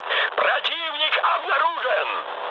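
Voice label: Russian enemy detected mood high, speech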